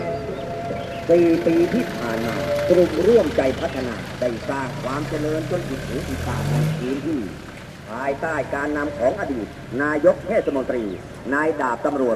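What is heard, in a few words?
election announcement, thai sounds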